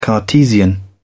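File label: "cartesian", English, British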